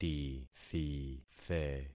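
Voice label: "tithithe", concatenated syllables; Affixing experiment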